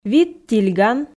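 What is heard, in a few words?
"вит тильган", Komi, female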